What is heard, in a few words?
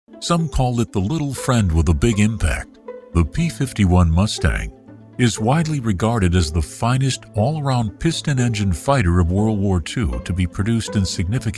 P-51 Documentary